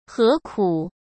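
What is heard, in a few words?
"何苦" Mandarin Chinese; hsk; chinese-flashcards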